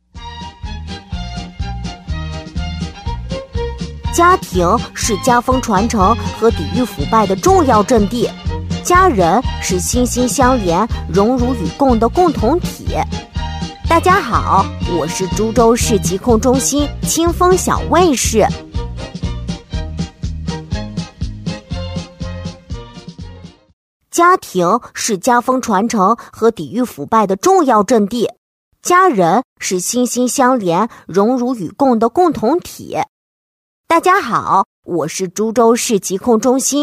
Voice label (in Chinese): 动画 童声 男童一本正经; 小卫士